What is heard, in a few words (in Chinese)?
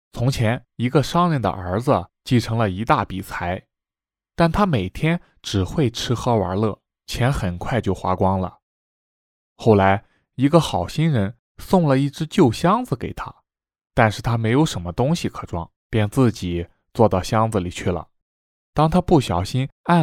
儿童; 喜马拉雅